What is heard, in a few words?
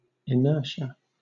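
"inertia", British English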